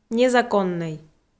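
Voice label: "незаконнӧй", Komi-Zyrian, female; kpv audio dict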